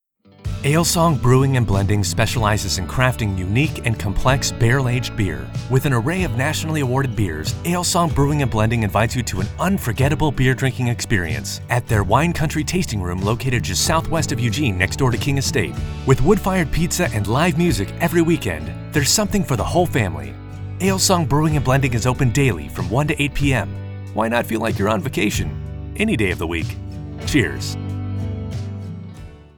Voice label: radio spot